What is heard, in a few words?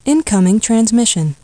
Incoming Trans, Voices; PaxImp